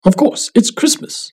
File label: phonograph voice